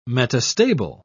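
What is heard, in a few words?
"metastable", English, British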